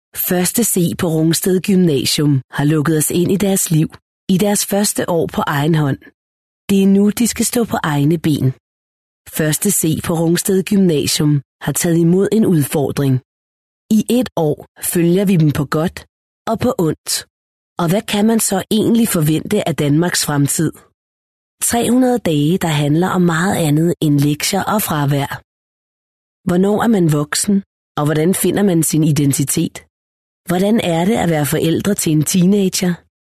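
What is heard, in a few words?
Danish, female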